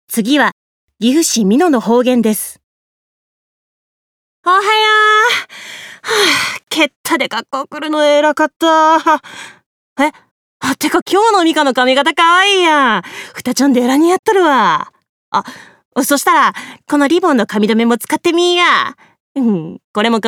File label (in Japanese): 方言